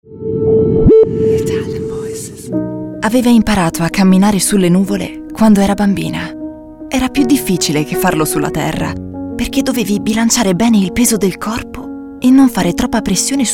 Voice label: ITALIAN VOICES; Naked Reel